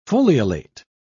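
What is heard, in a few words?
"foliolate", British English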